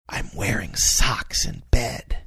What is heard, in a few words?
whispering im wearing socks in bed